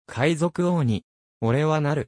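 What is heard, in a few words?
anime, Japanese, Hilarious Anime Quotes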